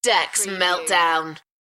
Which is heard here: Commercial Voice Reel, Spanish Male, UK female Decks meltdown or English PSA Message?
UK female Decks meltdown